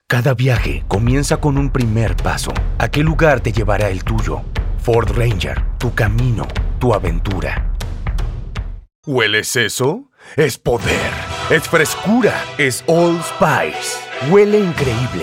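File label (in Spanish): poderoso epico, espanol neutro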